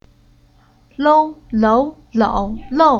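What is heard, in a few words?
"Lou", Chinese syllable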